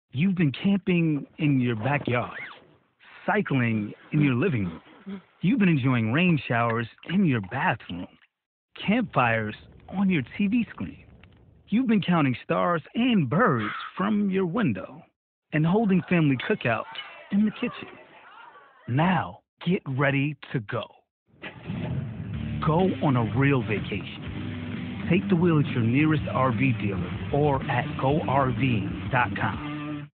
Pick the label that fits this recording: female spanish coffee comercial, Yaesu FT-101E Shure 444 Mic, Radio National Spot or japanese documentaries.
Radio National Spot